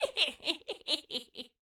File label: Female Taunt, Cannibal, dialogue